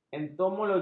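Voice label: "entomologi", Malay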